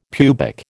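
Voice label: "pubic", American English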